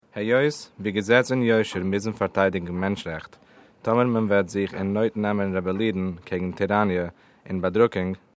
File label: Yiddish